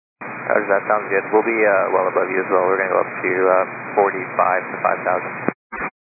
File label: 122 925MHz, scanner radio